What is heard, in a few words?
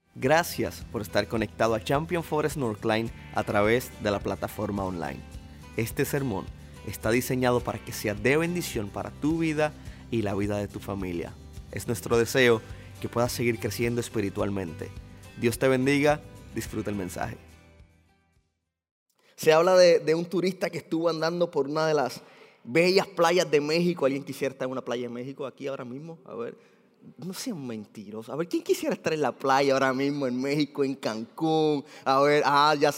Sermon Spanish